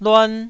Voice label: "luan1", Taiwanese syllable